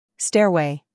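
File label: "scandal", American; stairway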